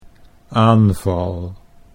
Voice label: "aanval", Dutch

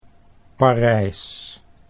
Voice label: "Parijs", Dutch

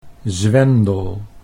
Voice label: "zwendel", Dutch

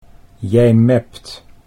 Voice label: "jij mept", Dutch

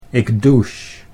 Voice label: "ik douche", Dutch

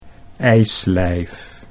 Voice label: "ijslijf", Dutch; 'ice-body'

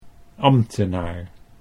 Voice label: "ambtenaar", Dutch

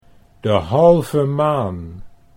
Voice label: De Halve Maan slow, Dutch

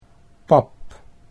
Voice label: "pap", Dutch

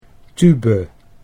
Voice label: "tube", Dutch

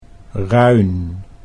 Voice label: "ruin", Dutch

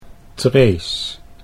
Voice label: "Trees" Dutch

